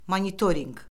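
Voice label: "monitorinq", Azerbaijani; Orfoqrafiya Lüğəti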